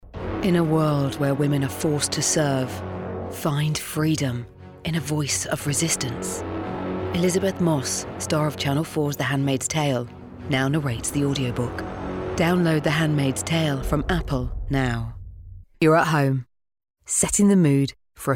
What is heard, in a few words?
Commercial